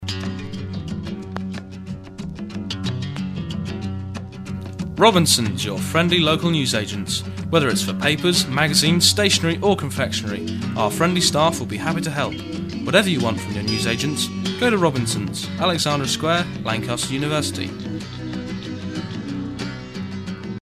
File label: ad; bfm jingles ads RSL 1995